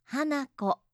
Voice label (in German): "hanako", japanische maedchennamen